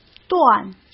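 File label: "段", Mandarin Chinese; hsk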